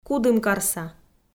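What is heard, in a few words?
"кудымкарса", Komi, female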